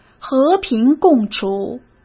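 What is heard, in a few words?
"和平共处" Mandarin Chinese; hsk; chinese-flashcards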